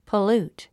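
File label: "pollute", English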